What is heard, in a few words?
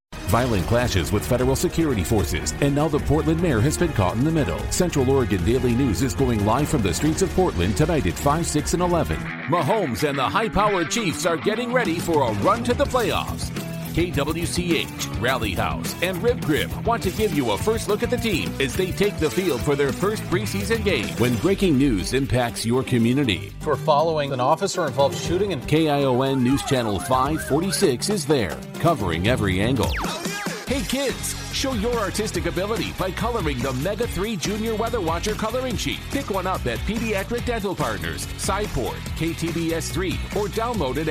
TV Promo